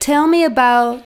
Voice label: VOICE GRL, Drum Bass, 160; eJay